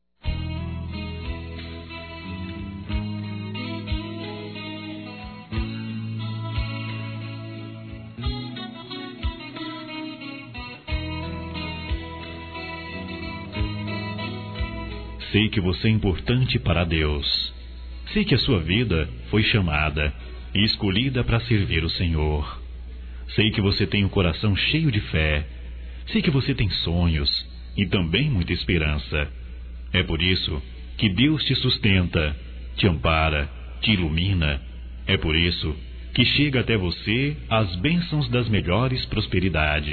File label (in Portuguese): ROMANTICO (TE AMO) M; ANIV. Evangelico